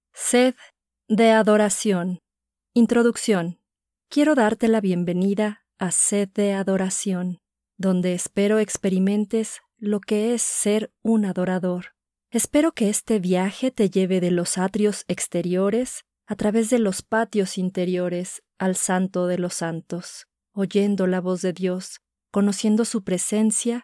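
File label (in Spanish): Voice Talent, español neutro latino americano mujer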